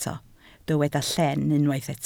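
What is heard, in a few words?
"llen" Welsh, spoken word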